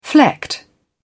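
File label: "flecked", British English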